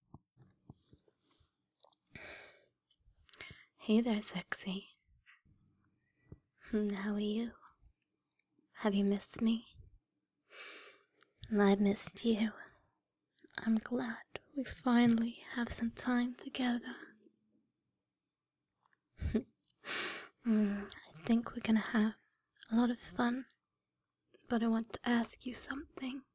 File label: F4M Surrender to me, Hypno, Binaural, Wet noises; without binaural beats